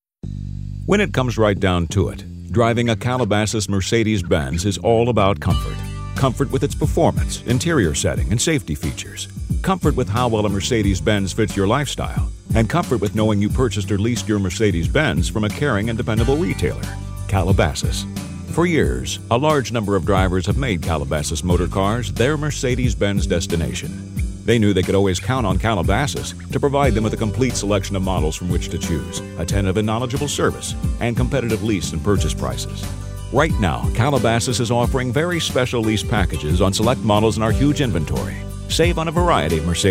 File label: Soft Sell